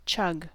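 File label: "chug", English, US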